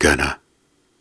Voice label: Soft Syllables and Imaginary Words, Viking Vocal

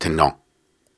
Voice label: Syllables and Imaginary Words, Hard, Viking Vocal; Viking Vocal Builder

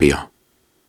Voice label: Soft Syllables and Imaginary Words, Viking Vocal

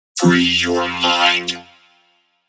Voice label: Vocal 140 - Free Your Mind (F); One-Shots; Future Vocals; Ghosthack; Color Bass Essentials

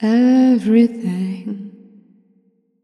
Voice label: "Everything", Vocals, Wet; GhostHack

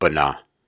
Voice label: Syllables and Imaginary Words, Viking Vocal

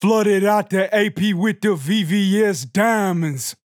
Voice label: Predrop Dry - Flooded Out - 130 BPM, Vocals; Cymatics; Euphoria Vocal Sample Pack

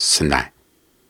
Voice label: Soft Syllables and Imaginary Words, Viking Vocal

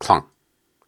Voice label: Syllables and Imaginary Words, Hard, Viking Vocal

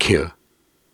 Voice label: Soft Syllables and Imaginary Words, Viking Vocal

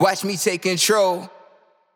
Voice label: Phrase Wet, Control, 145 BPM; Vocal Phrases; Cymatics; Euphoria Vocal Sample Pack

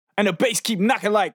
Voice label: Predrop Dry Bass Knockin 128 BPM, Pre-Drop Vocals; Cymatics; Euphoria Vocal Sample Pack

